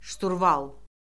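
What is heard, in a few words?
"şturval", Azerbaijani; Orfoqrafiya Lüğəti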